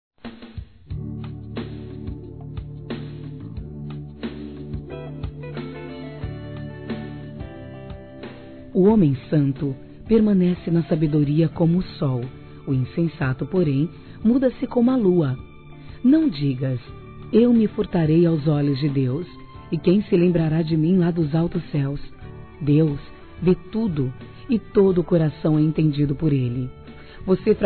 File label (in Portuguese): Trilha AO DESVIADO, Gospel, fem; Telemensagens; Telemensagens 2017